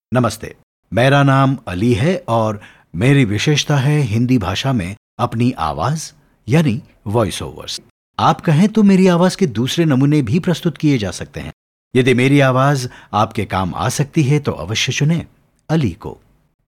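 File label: Hindi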